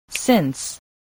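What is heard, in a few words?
"since", spoken word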